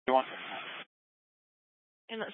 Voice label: Grand Junction Fire Department Dispatch

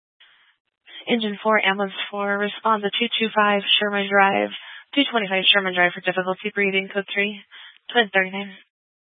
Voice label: Grand Junction Fire Department Dispatch

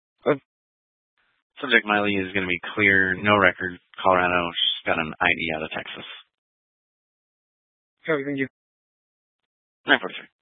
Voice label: Sheriff Dispatch; Mesa County

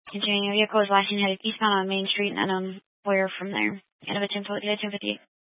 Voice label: Police Dispatch; Police 1 Dispatch

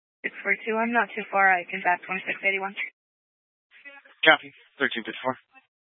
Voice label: Sheriff Dispatch; Mesa County

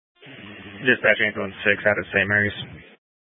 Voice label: Fire Dispatch

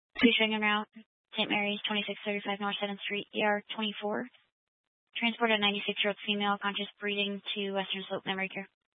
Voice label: Fire Department Dispatch, scanner

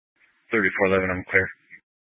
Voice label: Police Dispatch, scanner; Police 1 Dispatch

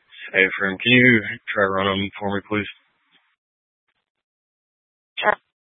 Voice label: Mesa County Sheriff Dispatch

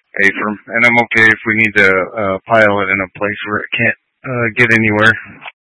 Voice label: Tactical Incidents, scanner

